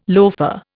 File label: "loafer", Indian